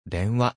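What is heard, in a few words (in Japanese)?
"電話", Japanese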